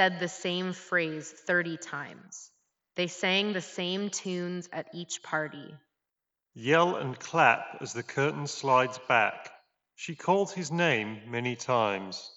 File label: MIC SAMPLE reverb; turtle beach; ATLAS AIR